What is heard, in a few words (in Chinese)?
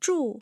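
"zhù", Chinese; tough sounds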